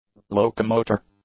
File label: "locomotor", English; adjectives